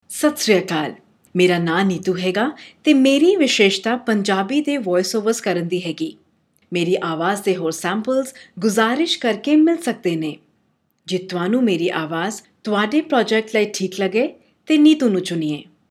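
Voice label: Punjabi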